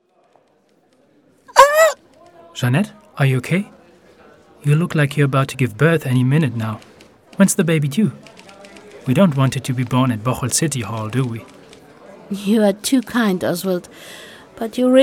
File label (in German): englisch; Café Eilers; Bocholter Bildtafeln; Stadtarchiv